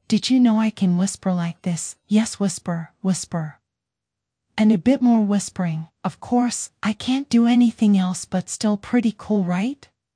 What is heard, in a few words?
whisper